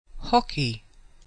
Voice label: "hockey", English